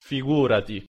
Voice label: "figurati" pronunciation it